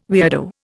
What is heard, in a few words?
"weirdo", Indian